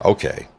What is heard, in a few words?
"okay", expletives